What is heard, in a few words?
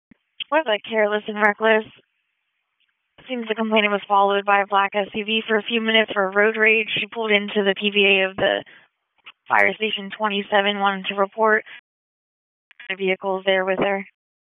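Police District Dispatch; Raleigh; Northeast; p25; wake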